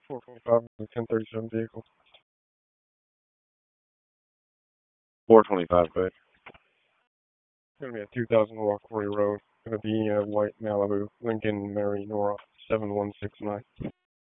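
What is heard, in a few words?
Raleigh Police Southeast District Dispatch, p25; wake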